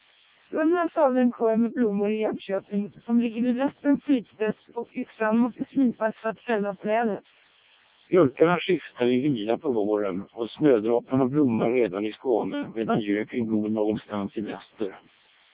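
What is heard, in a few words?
Swedish speech, CODEC2 vocoder; CODEC2 700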